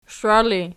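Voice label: shiwa ergative, Archi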